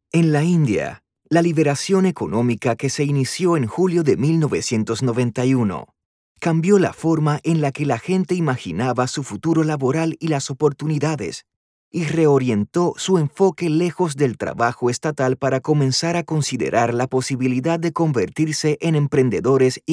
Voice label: E-Learning